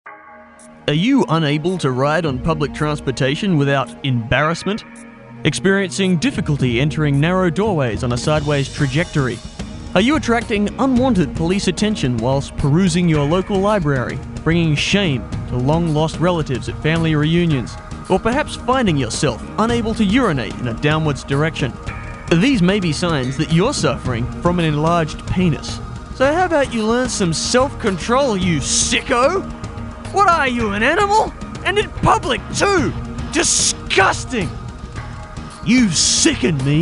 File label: Men's Health Announcement